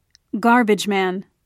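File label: "garbageman", British English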